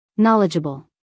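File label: "knowledgeable", American English, female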